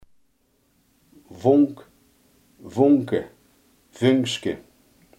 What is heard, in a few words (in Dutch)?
"vonk", limburgse